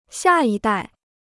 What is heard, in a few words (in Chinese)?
"下一代", Chinese